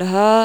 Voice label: "Dha", marathi